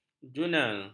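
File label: "jurnal", Malay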